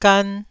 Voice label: "gan1", Taiwanese